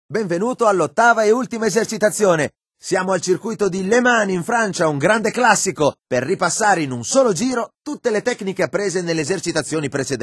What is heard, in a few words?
singlelap italian; MotoGP 3